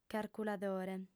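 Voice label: "carculadore", female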